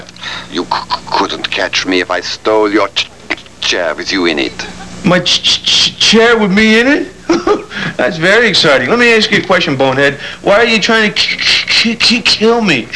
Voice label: stutter; Bruce Willis